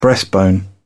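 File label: "breastbone", British English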